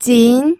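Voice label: "jin2", Mandarin Chinese second tone; pinyin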